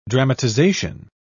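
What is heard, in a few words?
"dramatisation", British English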